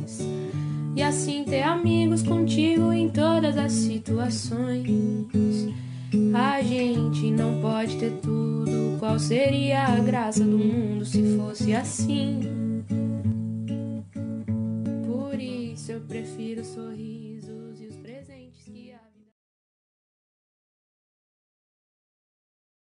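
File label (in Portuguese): ano novo female neutra; Ano Novo – Pessoa Especial – Voz Feminina; Telemensagens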